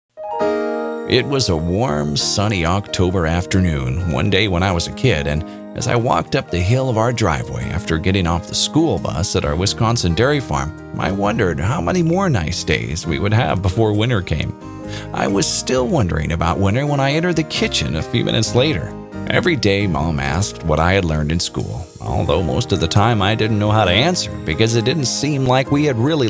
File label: STORY teller vo